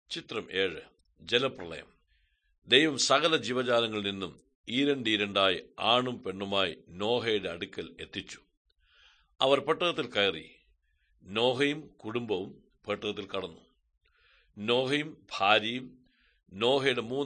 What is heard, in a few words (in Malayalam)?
good news malayalam, drama